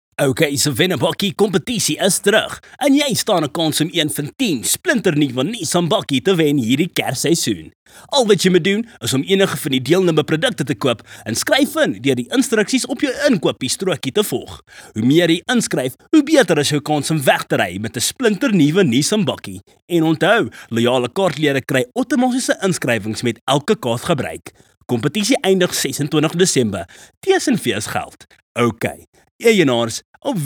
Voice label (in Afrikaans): Afrikaans Hard Sell